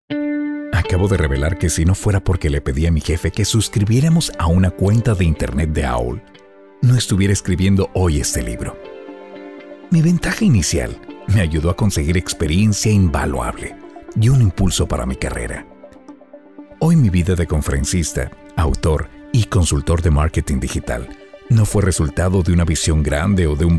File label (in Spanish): AUDIOLIBRO